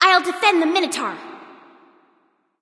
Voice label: self defend m; smite; bastet